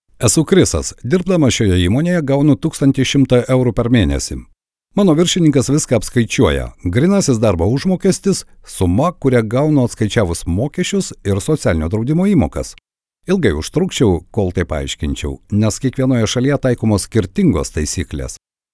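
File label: Lithuanian, male, elearning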